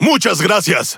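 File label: Spanish Speech; Quick Commands Thank You; World Of Warships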